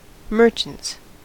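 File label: "merchants", American English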